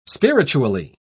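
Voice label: "spiritually", British English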